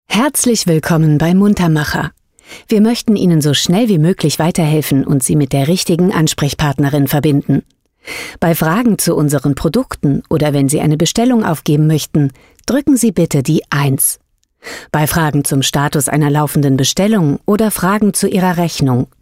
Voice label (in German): Telefonwarteschleife ohne Effekt; LOFT VOICES